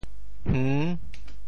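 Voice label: "hng6", Teochew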